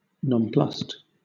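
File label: "nonplussed", British English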